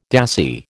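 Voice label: "dassie", American English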